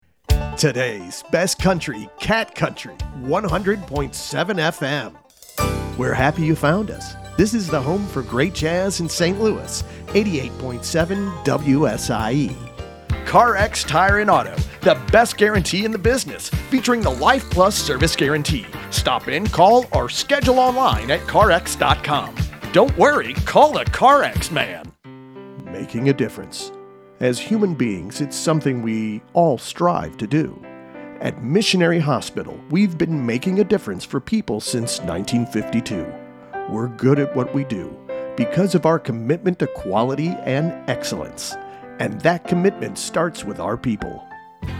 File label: VOICE ANNCR